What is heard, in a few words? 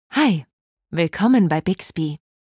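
onboarding provision greeting German; Bixby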